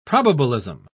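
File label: "probabilism", British English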